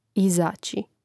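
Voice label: "izaći", Croatian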